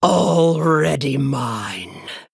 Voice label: Female Night Elf Attack

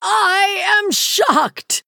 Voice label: Female Night Elf Wow

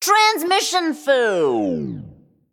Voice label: female mech death

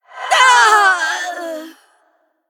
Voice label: Female Human Ghost Death

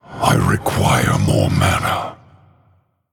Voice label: Male Human ERROR NEED MANA

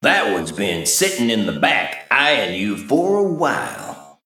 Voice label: Male Mech Recruit Medium Minion

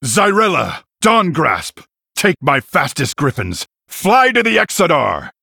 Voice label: Male Human Victory Post Explosion

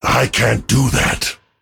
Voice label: Male Drust

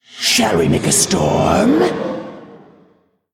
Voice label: Female Elemental Play

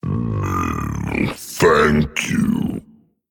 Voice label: Male Dragon Evil Emote Thanks; Evil Fight

